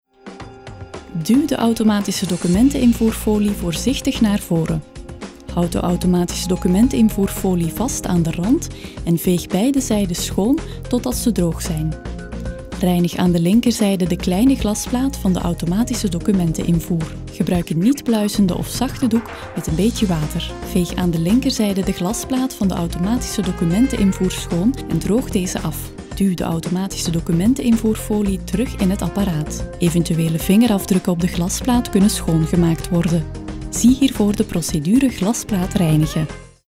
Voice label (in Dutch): Vlaams, stemacteur; voice-over; samsung